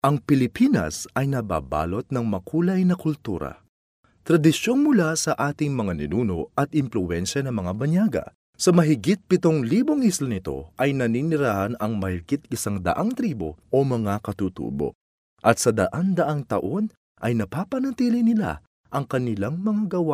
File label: filipino; (Tagalog) voice overs; foreign languages